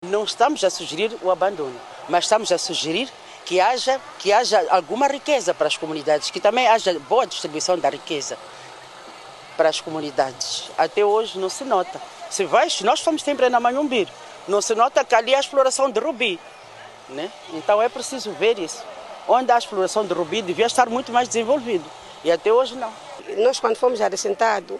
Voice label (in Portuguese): VOX POP mulheres camponesas; mulheres de cabo delgado debatem exploracao de recursos